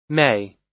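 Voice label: "may", American English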